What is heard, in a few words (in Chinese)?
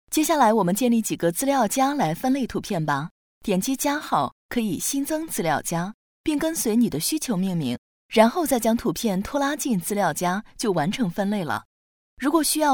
女 课件 教程视频